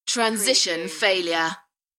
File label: UK female, Transition failure; beanstalk audio